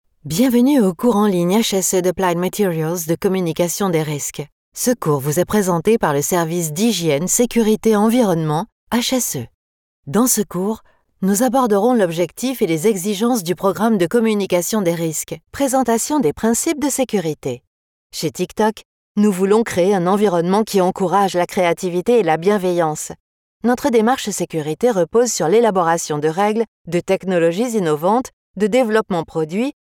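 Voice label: French, female, E-Learning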